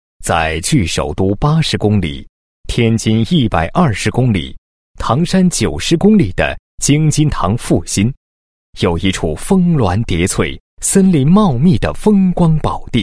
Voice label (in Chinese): 男 专题, 配音; 记天津市某县国营林场